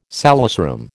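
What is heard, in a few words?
"salesroom", American English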